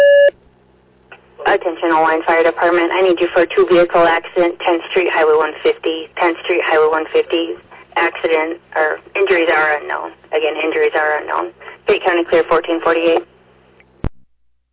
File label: Fire, dispatch